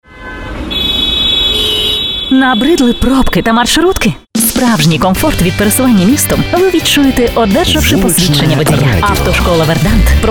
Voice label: advert